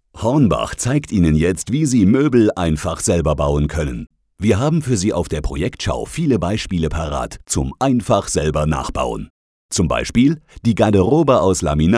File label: commercial style